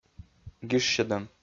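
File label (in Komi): "гижсьӧдӧм", Komi, male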